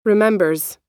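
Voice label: "remembers", US English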